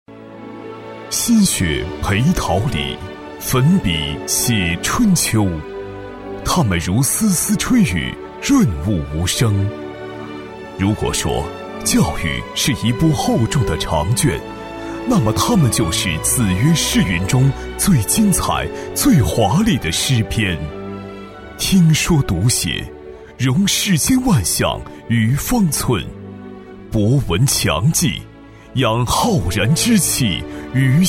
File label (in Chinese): 男 颁奖, 厚重有力 大气浑厚